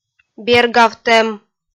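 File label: "бергавтӧм", Komi, female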